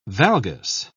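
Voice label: "valgus", British English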